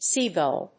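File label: "seagull", English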